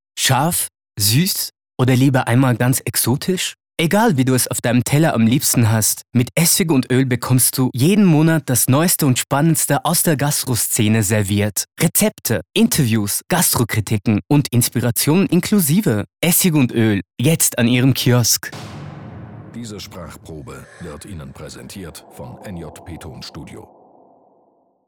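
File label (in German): spot sympathisch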